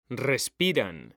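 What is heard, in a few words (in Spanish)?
"respiran", male; mekanta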